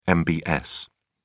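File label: "mbs", British English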